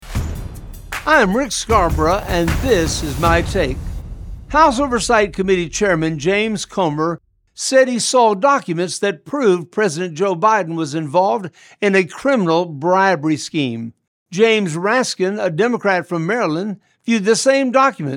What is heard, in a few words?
Radio Spot; Biden Scandal